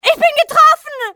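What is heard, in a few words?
hit, female; XBOX; civ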